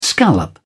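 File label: "scallop", British English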